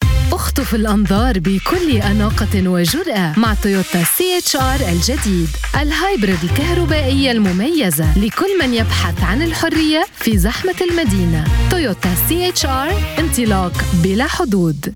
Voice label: Modern Standard Arabic In App Ad; Toyota CHR